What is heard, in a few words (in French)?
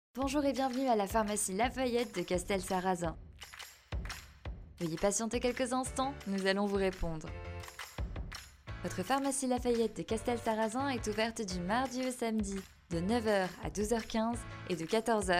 FR Pharmaceutical; Voicemachine